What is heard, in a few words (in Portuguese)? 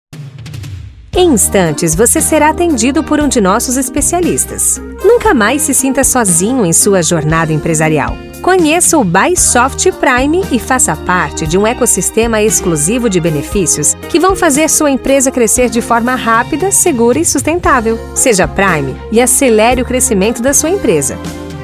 espera telefonica; BUYSOFT